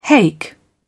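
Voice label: "hake", British English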